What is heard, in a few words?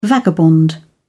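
"vagabond", British English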